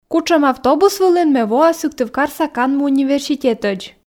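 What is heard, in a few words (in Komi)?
кутшӧм автобус вылын ме воа сыктывкарса канму университетӧдз, Komi, female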